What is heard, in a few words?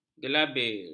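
"gelabir", Malay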